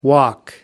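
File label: "walk", English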